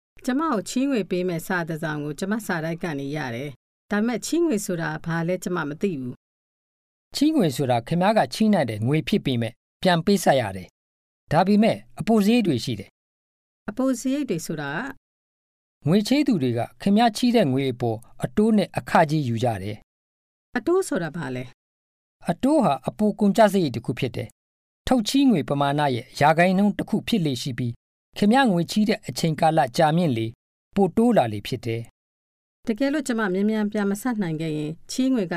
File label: burmese; credit